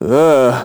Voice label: vo confused; Crypt of the NecroDancer